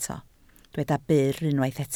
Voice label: "byr", Welsh